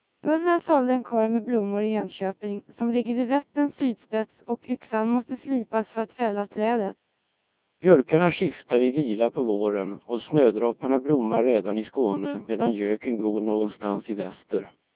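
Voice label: codec2 1600, Swedish, speech samples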